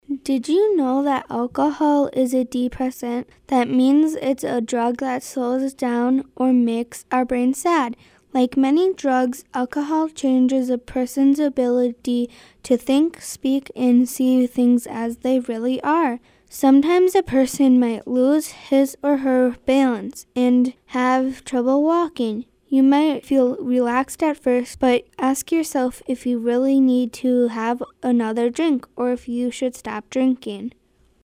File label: Announcement